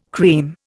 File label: "cream", Indian